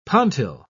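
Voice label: "pontil", British English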